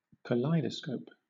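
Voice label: "kaleidoscope", British English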